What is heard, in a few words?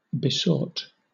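"besought", British English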